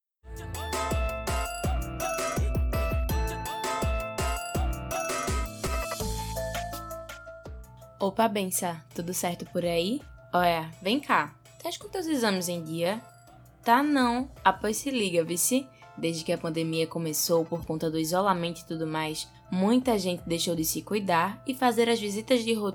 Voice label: SPOT; CHECK-UP